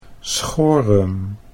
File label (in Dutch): "schorem", Dutch